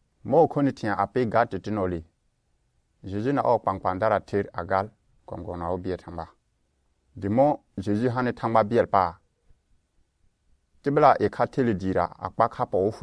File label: Lobiri; Jeju Pilatii Yɛra; Arrest and Trial of Jesus